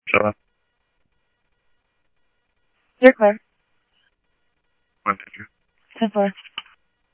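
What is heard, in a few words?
Simulcast police department, scanner audio trunk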